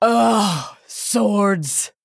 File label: Female Blood Elf Attack